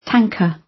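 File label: "tanker", British; Language, linguistics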